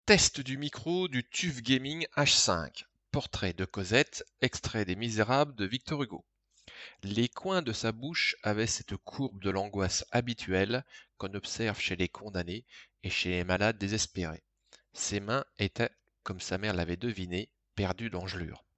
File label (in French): Wave2 Micro Amovible